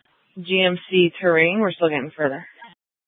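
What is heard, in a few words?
Police Department North Dispatch, scanner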